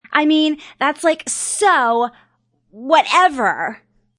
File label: Young Lady, A young lady says; Young Lady Says I Mean Whatever; Talking